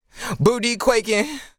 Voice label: Vocal Phrase, Booty, 150 BPM, Dry; Vocal Phrases; Cymatics; Euphoria Vocal Sample Pack